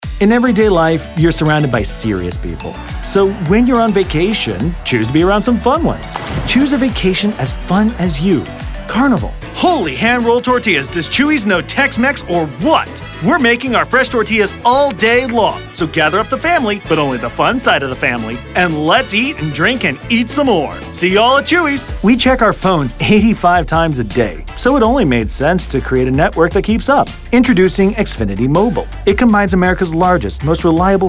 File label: male Commercial